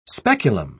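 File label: "speculum", English, British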